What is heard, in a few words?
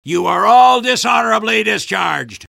soldier jeers; tf2